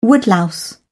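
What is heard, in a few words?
"woodlouse", British English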